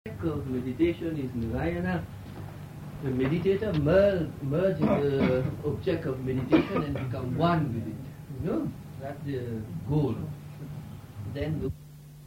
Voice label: meditation; kirtans